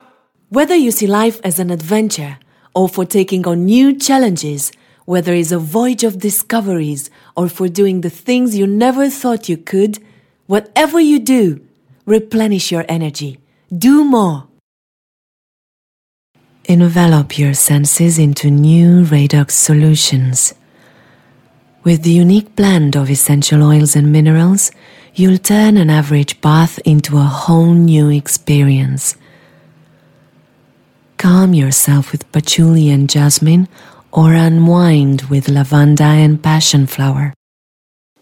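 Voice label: Romanian Accented English; GoLocalise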